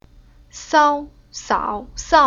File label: "Sao", Chinese